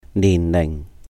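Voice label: "nìhn lìhng 年齡", Cantonese; age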